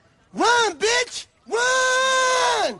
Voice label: Run Bitch Run Funny sound effect

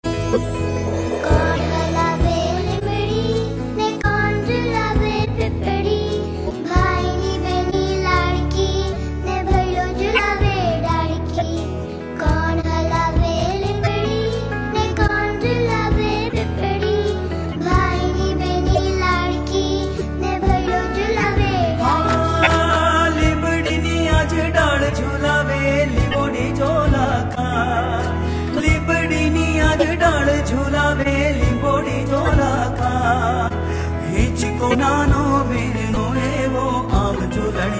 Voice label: baby girl voice

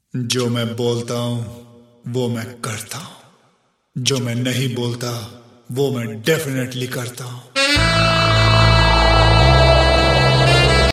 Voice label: Rowdy Dialogue

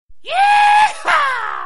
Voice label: Yeehaw Cowboy

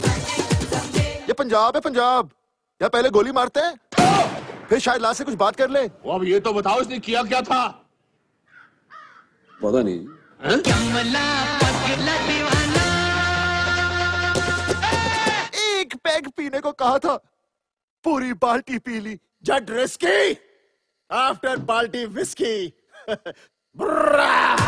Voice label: Funny Dialogue

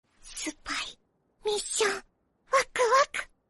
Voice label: Waku Waku Korean Dialogue; Spy X Family; Anime

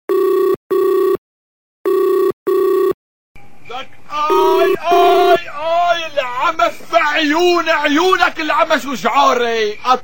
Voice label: Very Funny Arabic

